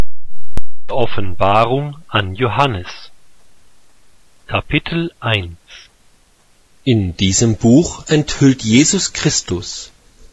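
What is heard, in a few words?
german, bible reading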